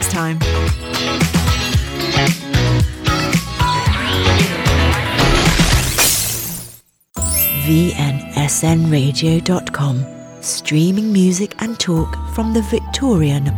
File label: Podcast